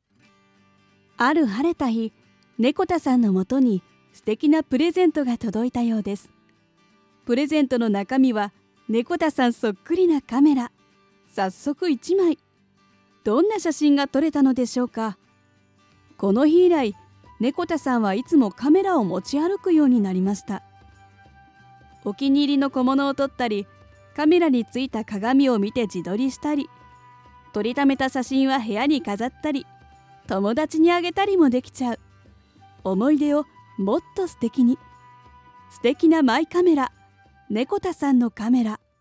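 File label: voice sample commercial shop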